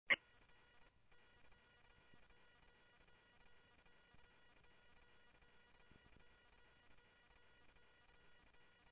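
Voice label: police department, scanner audio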